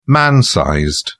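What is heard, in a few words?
"man-sized", English, uk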